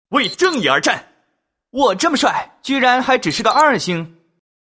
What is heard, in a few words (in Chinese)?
男 游戏年轻声音骑士; 国语男声配音; 浑厚男声